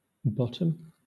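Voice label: "bottom", British English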